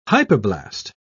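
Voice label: "hypoblast", British English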